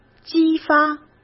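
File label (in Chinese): "激发" Mandarin Chinese; hsk; chinese-flashcards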